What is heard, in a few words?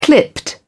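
"clipped", British English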